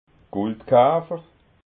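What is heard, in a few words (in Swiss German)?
"guldkafer", Alsatian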